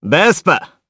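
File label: Vox Japanese; Kibera; Vespa; kingsraid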